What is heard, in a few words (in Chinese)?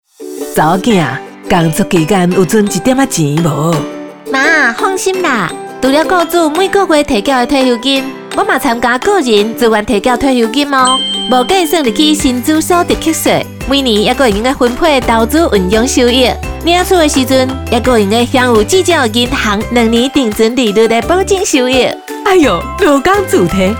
臺語; 勞動部; 個人自提退休金好處多